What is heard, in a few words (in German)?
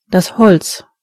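"wood", German; gcompris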